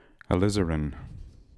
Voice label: "alizarin", pronounciations; Fourth Way; Beelzebub

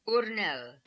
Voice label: "Oornel", pronounciations; Fourth Way; Beelzebub

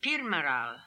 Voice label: "Pirmaral", pronounciations; Fourth Way; Beelzebub

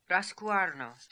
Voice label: "Rascooarno", pronounciations; Fourth Way; Beelzebub

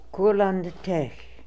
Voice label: "Kurlandtech", pronounciations; Fourth Way; Beelzebub

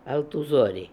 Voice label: "Altoozori", pronounciations; Fourth Way; Beelzebub

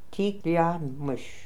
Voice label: "Tikliamish", pronounciations; Fourth Way; Beelzebub

